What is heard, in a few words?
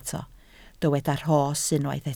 "rhos", Welsh